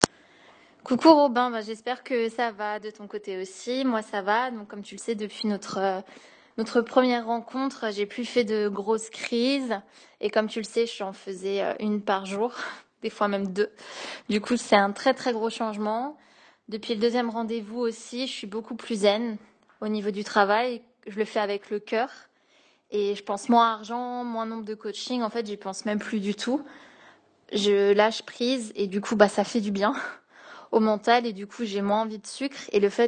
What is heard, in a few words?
Audio Message